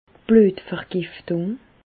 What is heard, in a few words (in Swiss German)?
"bluetvergiftung", Bas Rhin; medecine; septicémie